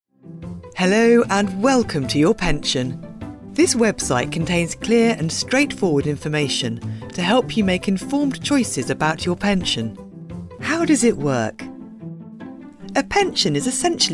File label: e-learning